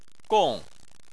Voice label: "gong4", Chinese

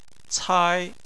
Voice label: "cai1", chinese

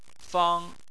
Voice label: "fang1", Chinese

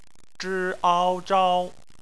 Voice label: "zhao", Chinese

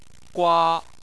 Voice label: "gua1", Chinese Phonetics

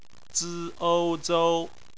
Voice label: "zou", chinese

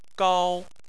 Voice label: "gao1", Chinese

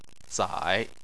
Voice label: "zai3", Chinese

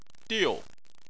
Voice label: "diu4", Chinese

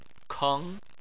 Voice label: "keng2", Chinese

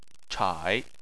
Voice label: "chai3", chinese

